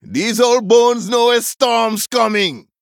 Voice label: Male Troll